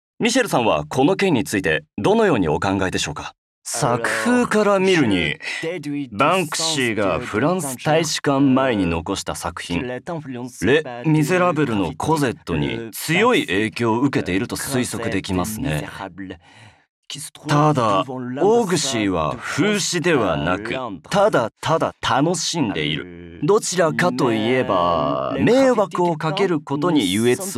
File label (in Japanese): フランス語ボイスオーバー